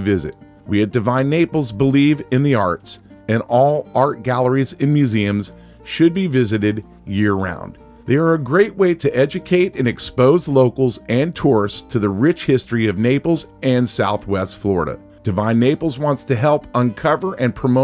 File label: voice with music; museum and art